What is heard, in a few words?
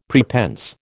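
"prepense", American English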